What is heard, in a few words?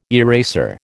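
"eraser", American English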